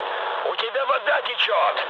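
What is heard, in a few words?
Russian damaged water mood med, speech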